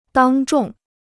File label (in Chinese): "当众", Chinese